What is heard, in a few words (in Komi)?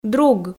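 "друг", Komi, female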